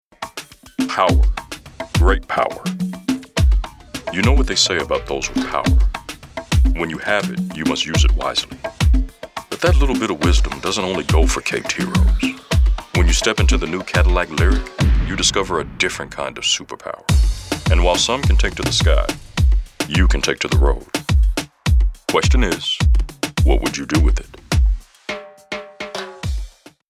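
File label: Deep Driven, Male Voice Actor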